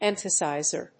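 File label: "Emphasizer", English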